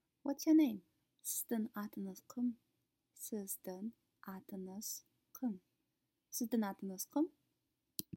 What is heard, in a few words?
Kazakh Whats your name formal